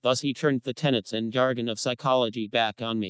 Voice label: Creaky; arctic